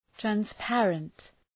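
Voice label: "transparent", English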